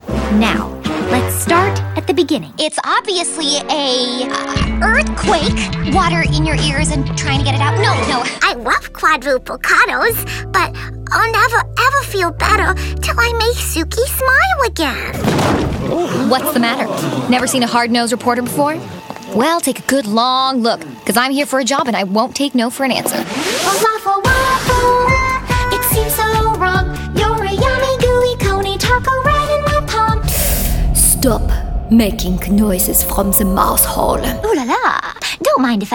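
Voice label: animation female, Voice Over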